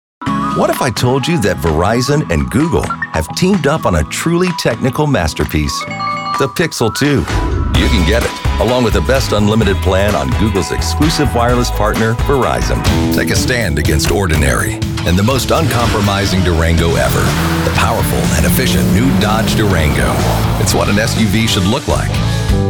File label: Male Commercial